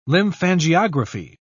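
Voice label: "lymphangiography", British English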